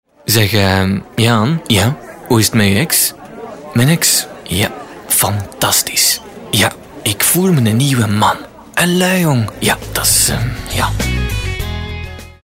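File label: Belgian commercial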